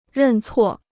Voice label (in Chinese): "认错", Chinese